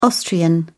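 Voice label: "austrian", British English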